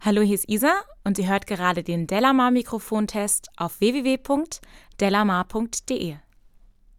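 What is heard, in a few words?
speech female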